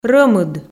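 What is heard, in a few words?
"рӧмыд", Komi, female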